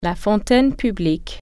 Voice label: "drinking fountain", French